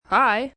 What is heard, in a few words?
"Hi", Teen, boy; Greetings And Goodbye; Greetings, child